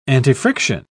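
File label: "antifriction", British English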